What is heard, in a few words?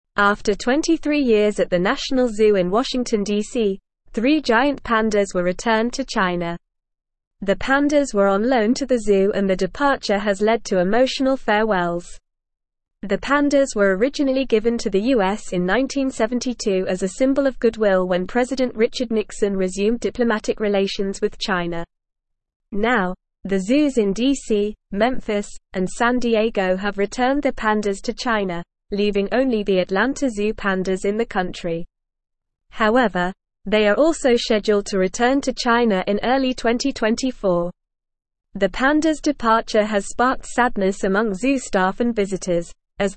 English Advanced NORMAL Reading; English Newsroom; Pandas Return to China After 23 Years in US